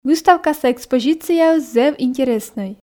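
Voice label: "выставкаса экспозицияыс зэв интереснӧй", Komi, female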